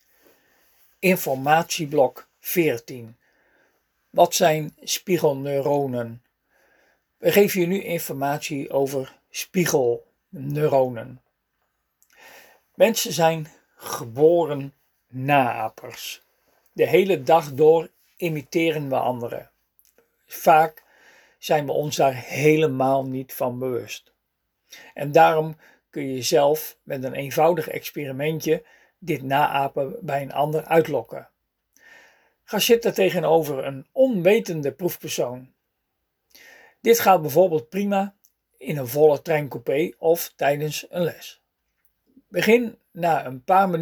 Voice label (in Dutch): spraak instructie